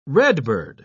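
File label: "redbird", English, British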